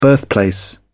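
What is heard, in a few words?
"birthplace", British English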